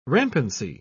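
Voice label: "rampancy", British English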